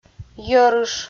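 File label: "йӧрыш" Komi, female; audio dict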